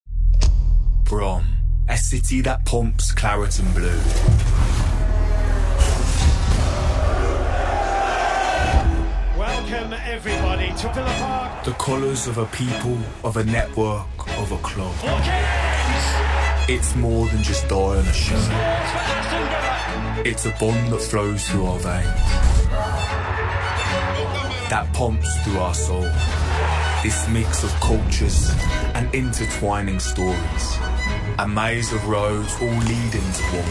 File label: NARRATION; Premier League